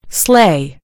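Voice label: "sleigh", American English; gcompris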